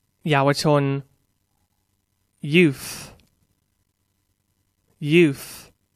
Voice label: "youth", English; Noun